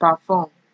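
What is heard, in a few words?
"perform", Nigerian Pidgin, female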